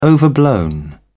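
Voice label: "overblown", British English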